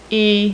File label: "e", female; alphabet; Web Clip Empire 150,000